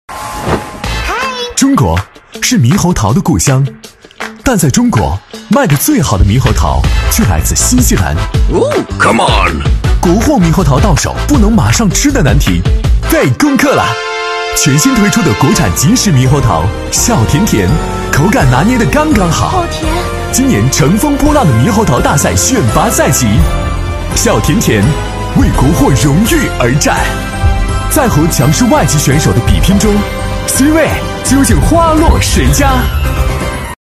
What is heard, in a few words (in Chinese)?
男 年轻介绍; 阿里巴巴; 男B3-磁性稳重; 年轻舒缓